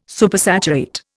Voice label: "Supersaturate", Indian